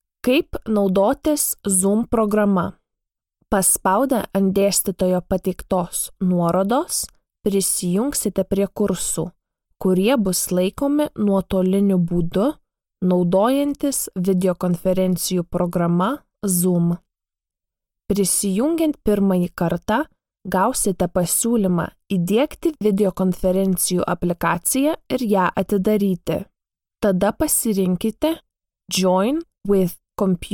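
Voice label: Lithuanian, e-learning; GoLocalise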